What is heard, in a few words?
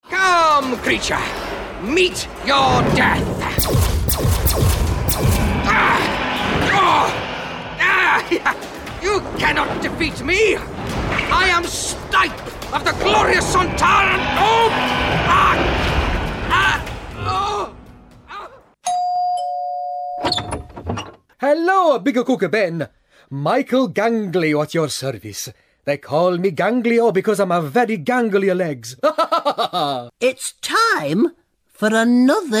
Animation Character Gaming